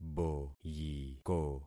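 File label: "boyiko", concatenated syllables; Affixing experiment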